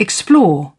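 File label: "explore", British English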